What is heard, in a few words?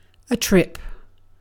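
"trip", British English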